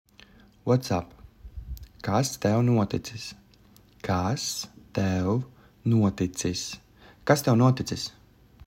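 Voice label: "What's up", Latvian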